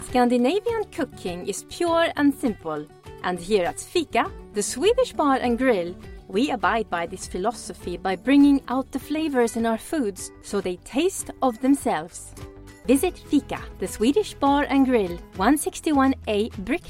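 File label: Finnish English, female, accent of finland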